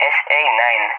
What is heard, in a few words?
"SA-9", ENG; AirDefence; DCS; Allied Flight